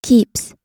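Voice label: "keeps", US English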